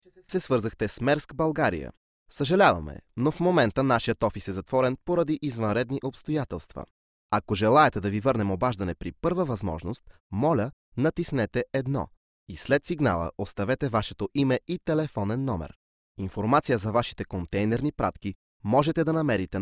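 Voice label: Bulgarian, male, IVR; voice demos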